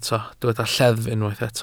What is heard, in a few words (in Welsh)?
"lleddf", Welsh